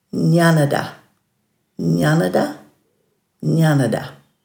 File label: "njanadha", Dhurga; there, that